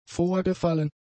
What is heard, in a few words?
"vorgefallen", German; adjectives